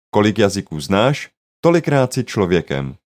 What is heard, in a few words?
saying language, czech